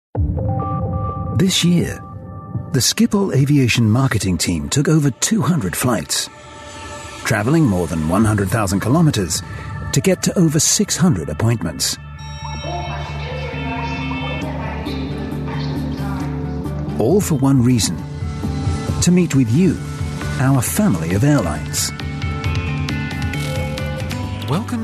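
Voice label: British English, male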